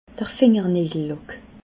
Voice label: "fingernajellack", alsace

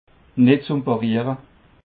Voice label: "netzuempariere", Alsace

